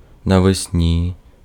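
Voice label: Ukrainian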